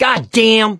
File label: reaction negative, survivor voice mechanic; source engine; left 4 dead 2